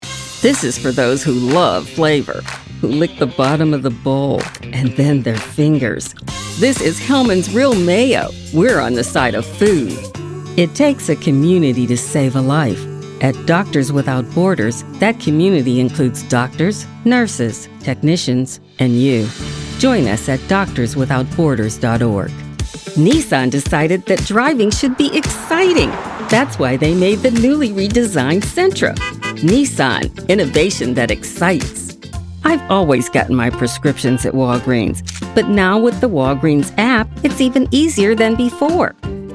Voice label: Commercial, Voice Overs